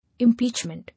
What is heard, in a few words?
"Impeachment", English